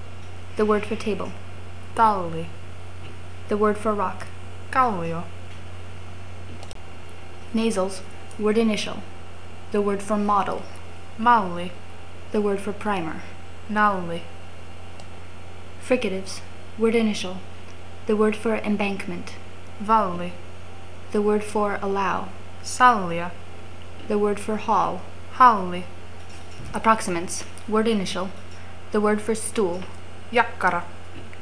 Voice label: Simple Consonants